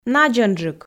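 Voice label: "надзӧнджык", Komi, female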